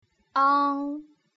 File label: "ang", putonghua